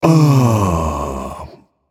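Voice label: "seriously", male; baronsamedi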